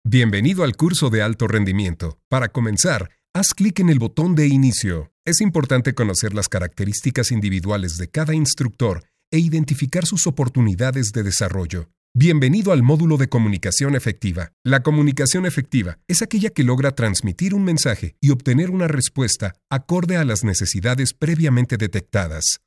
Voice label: E-learning short